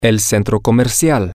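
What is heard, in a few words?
Spanish, male; the Mall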